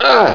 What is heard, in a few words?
co pain, cowboy; wanted